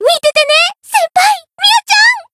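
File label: variable chant, Japanese; ilulu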